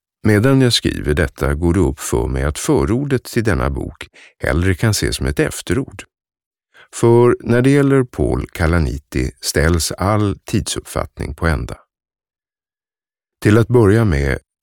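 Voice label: Swedish audiobook male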